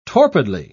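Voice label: "torpidly", English, British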